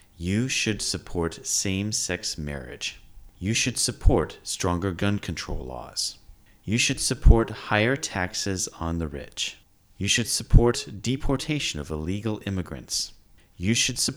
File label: male LOW, voice pitch; voice pitch 2018